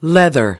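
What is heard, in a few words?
"leather", English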